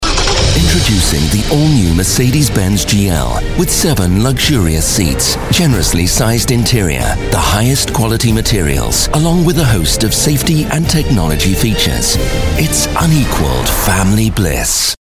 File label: man british